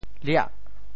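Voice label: "liah8", Teochew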